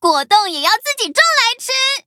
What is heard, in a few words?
loading, zh-hant; cookie run